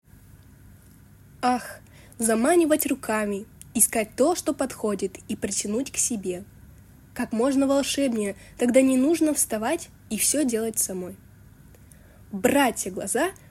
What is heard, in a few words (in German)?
russisch